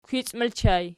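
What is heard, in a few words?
"kwici" ergative plural, Archi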